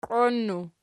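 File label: "qioinnu" nominative, Archi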